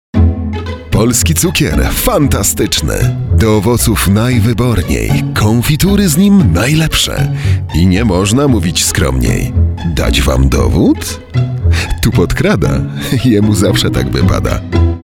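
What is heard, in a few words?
voice male advert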